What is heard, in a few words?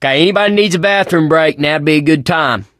in water, voice, mechanic, survivor; Left 4 Dead 2